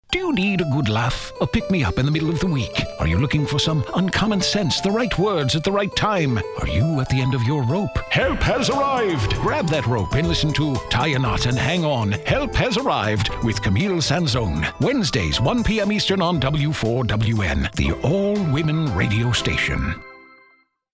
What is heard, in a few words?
Promo BRIT WITH MUSIC